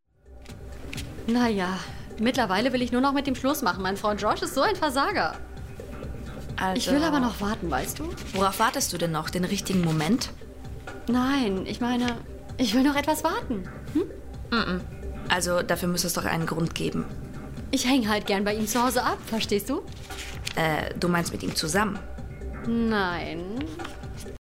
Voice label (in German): junge Frau Synchron